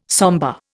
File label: "sombre", Indian